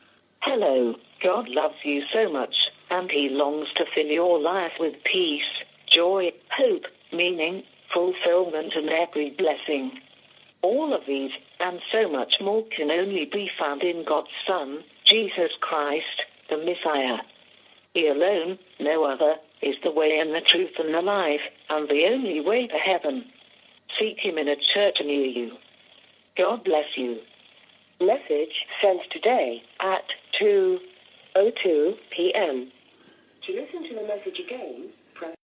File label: voice mail